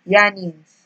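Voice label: "yarnings", Nigerian Pidgin, female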